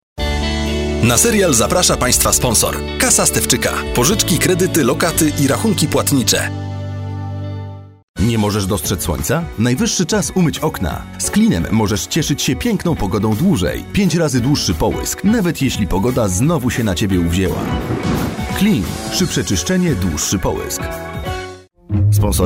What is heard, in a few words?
COMMERCIAL